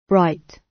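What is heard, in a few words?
"bright", British English; Space, the planets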